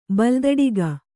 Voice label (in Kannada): "ಬಲುದಡಿಗ", Kannada